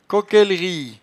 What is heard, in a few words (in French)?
"coquelrie", patois jura